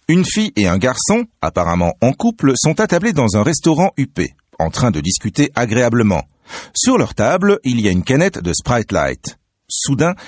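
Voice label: French, male, narration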